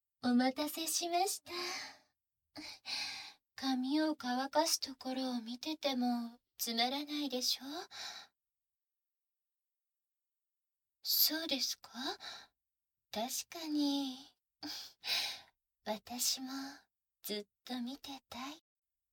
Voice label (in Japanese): 膝枕耳かき&ベロチューイチャイチャ; SEなし